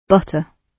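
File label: "butter", British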